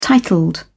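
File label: "titled", British English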